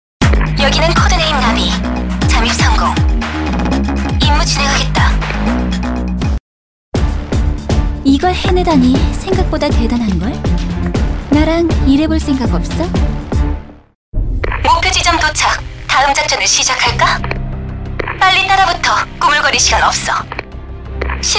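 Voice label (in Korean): 게임 20대 도도하고 섹시한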